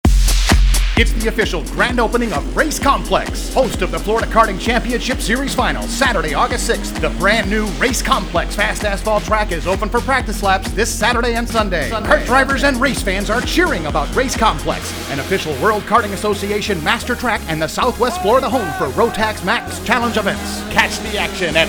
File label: Spot Voice FX; Race Complex; Cart; Sunday